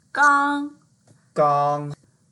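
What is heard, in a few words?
"gang1", Mandarin Chinese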